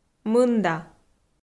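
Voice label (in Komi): "мында", Komi, female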